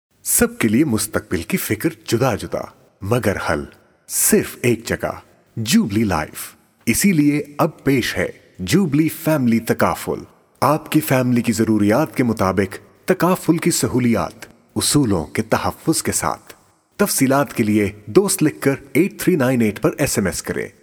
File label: Radio Spot Without Music; Jubilee Takaful